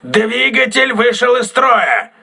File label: engine damaged, crew ships russian